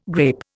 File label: "grape", Indian